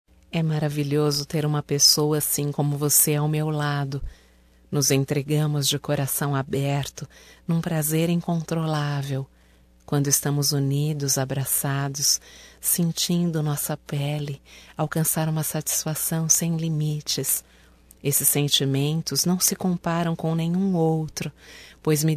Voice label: ROMANTICA VI, fem, Só Voz; Telemensagens; ROMÂNTICAS

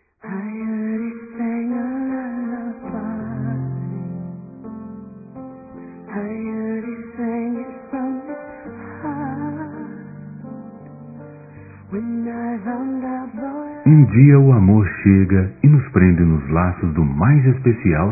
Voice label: NEUTRA M; Telemensagens; Dia Dos namorados; masc; Telemensagens 2017

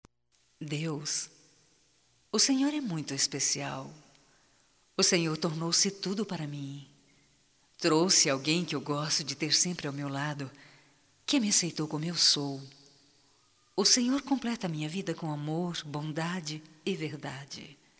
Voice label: EVANGELICA RELIGIOSA - F, Só Voz; fem; Telemensagens 2017

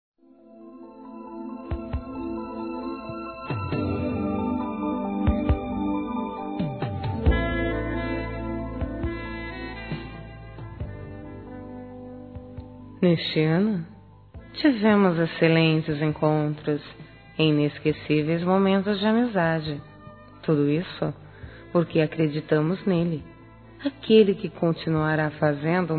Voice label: ANO NOVO P AMIGO F, fem; Telemensagens; Natal e Ano novo

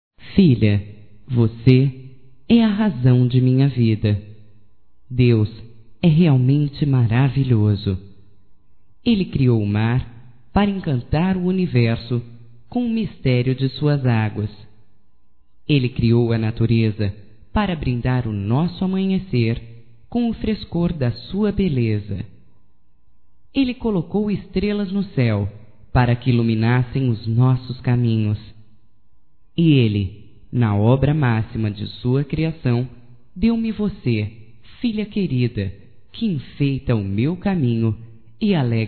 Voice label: FILHO (F), fem; Amizades; Telemensagens 2017

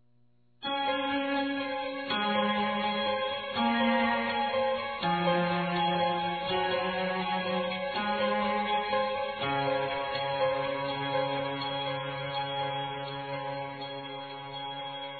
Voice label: EVANGÉLICO F; Aniversários; Telemensagens

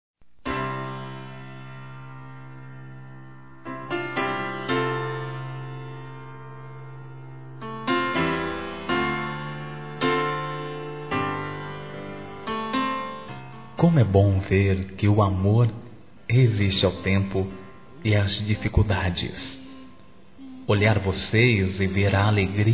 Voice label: Casamento Masculino; Telemensagens; Parabenização

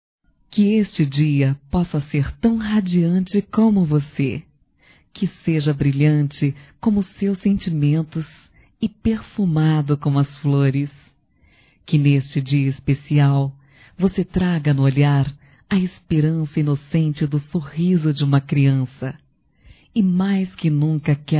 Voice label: Aniversário de Ex Namorado Feminino; Aniversarios; Telemensagens; Telemensagens 2017

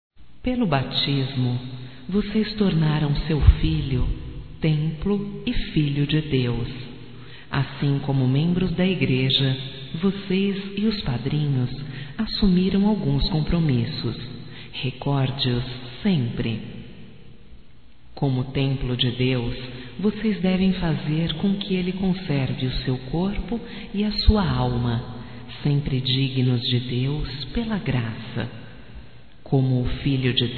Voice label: Mensagem de Batizado Para Pais Feminino

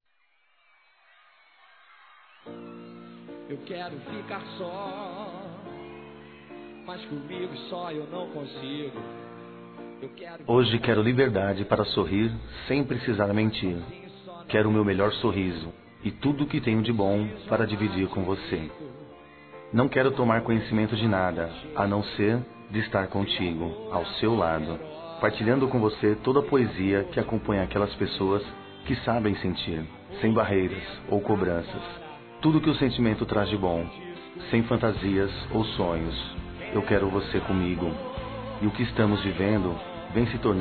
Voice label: Masculino, Dia Dos namorados; Telemensagens; Telemensagens 2017

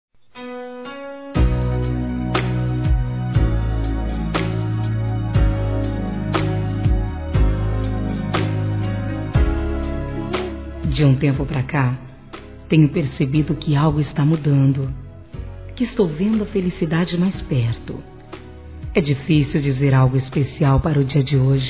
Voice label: ANIV. ROMANTICO M, Telemensagens, masc; Telemensagens 2017

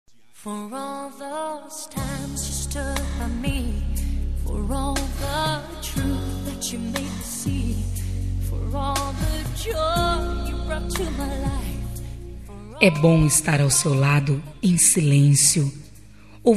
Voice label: ROMÂNTICO SUAVE; Dia Dos namorados; fem; Telemensagens 2017

